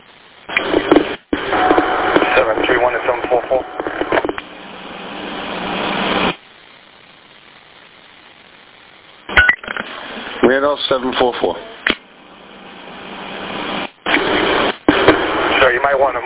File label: Police Radio